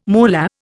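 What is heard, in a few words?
"moolah", Indian English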